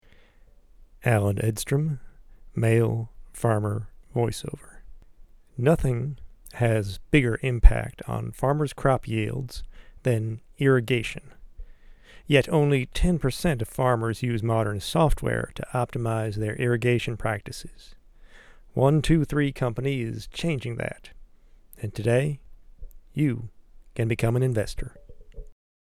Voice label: Farmer Voiceover

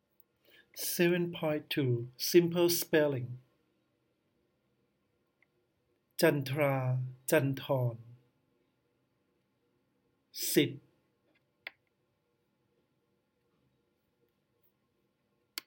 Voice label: simple spelling

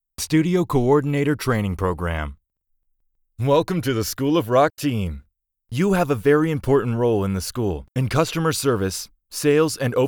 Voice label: elearning sample

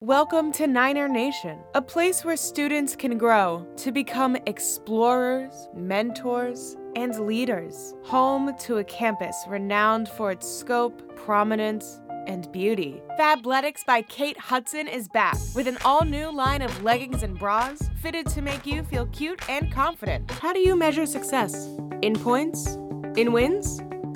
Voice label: VO Commercial

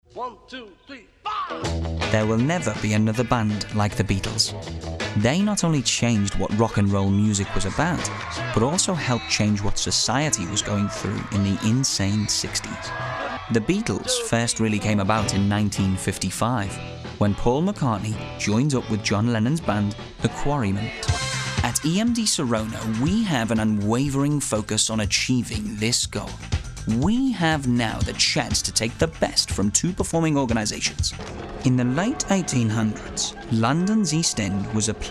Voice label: Narration Short Demo

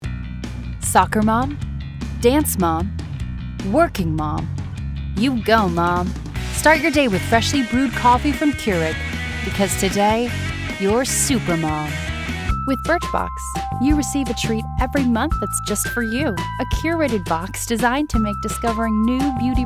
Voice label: Commercial; Commercial Voice Over Demo

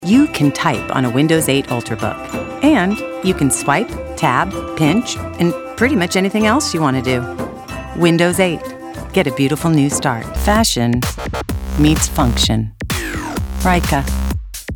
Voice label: VOICEOVER

